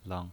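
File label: "leng", pinyin, Mandarin Chinese, neutral tone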